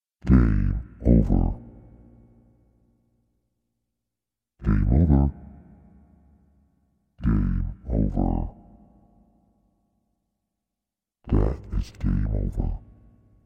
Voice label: Demon Says Game Over

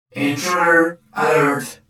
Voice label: Robot Voice Intruder Alert

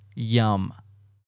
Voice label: Young Man Saying Yum

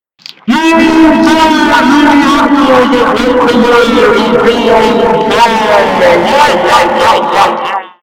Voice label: Scary Man

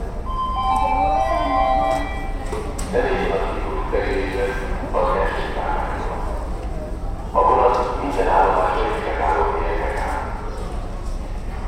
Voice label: Train Station Speaker

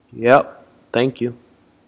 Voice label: Yap Thank You Raw

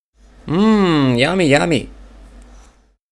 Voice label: Dad Says Yummy

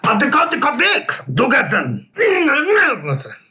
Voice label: Mad Husband

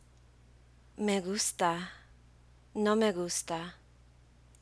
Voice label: "I Like I Don't Like" In Spanish

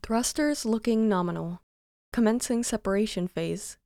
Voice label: Female Astronaut Vocal Sample

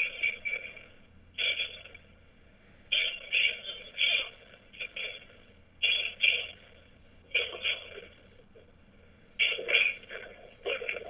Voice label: Creepy Message

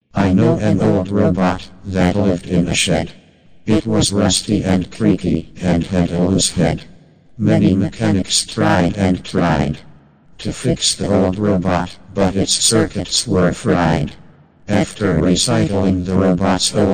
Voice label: Robotic Vocal Poem Poetry; I Know An Old Robot

